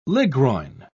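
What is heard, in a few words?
"ligroin", English, British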